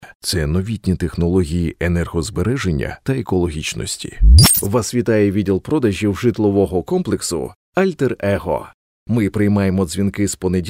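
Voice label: ukrainian voiceover locutor ucraniano, Ukrainian male voice over talent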